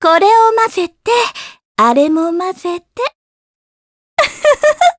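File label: vox dia Japanese; Mediana; kingsraid; jp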